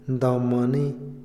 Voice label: "pasado mañana", Otomí, hombre; INALI

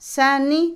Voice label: Otomí, mujer; peine; Ropa; INALI

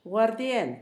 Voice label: "aguardiente", Zapoteco Asunción Ocotlan; Alimento; INALI; Haspelmath

